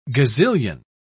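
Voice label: "gazillion", British English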